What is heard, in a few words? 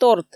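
"торт", Komi, female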